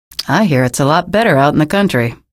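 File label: citizen remarks, female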